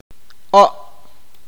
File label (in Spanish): "cinco", awakateko